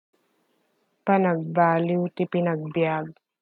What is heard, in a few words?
Ilocano; lifestyle changes - panagbaliw ti panagbiag